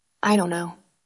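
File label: Voice Acting es Female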